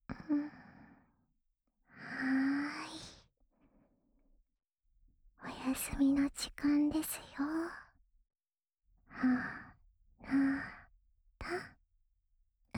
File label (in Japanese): 安眠用添い寝（左耳）, ASMR